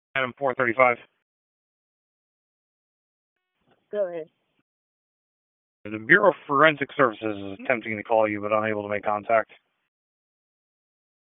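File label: Police Southeast District Dispatch; Raleigh; wake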